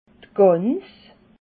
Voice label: "gans", alsace

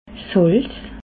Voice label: "salz", alsace

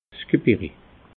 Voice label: "gebirri", alsace

